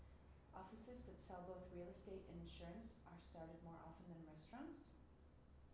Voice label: Real Data far female, reverberant environments